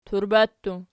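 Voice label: "turba" plural, Archi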